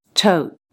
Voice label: "tote" toʊt̚, English